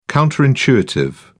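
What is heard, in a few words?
"counter intuitive", British English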